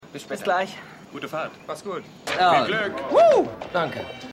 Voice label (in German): Zirkusleute Mann An Bartresen; Al; AusgerechnetAlaska